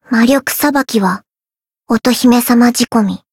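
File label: Voice variable chant, Japanese; nagi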